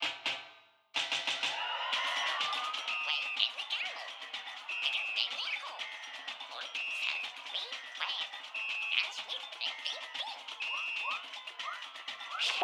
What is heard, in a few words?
Radio Commercial